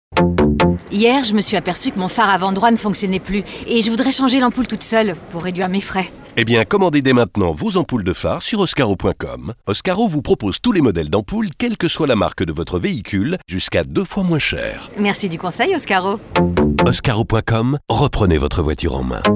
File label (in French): SPOT AMPOULE; OSCARO